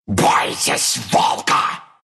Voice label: wolf move, ru; Lycan; Dota 2